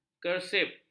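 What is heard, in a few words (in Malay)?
"kersip", Malay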